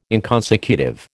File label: "inconsecutive", American